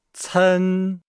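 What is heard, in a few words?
"cen", Chinese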